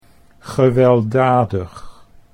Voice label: "gewelddadig", Dutch